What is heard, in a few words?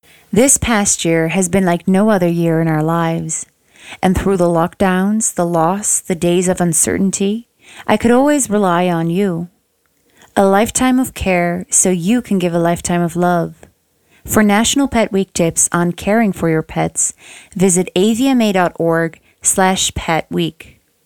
Voice Over Pet Week